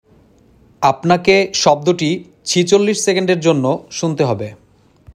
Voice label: male sound given